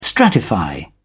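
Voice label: "stratify", British English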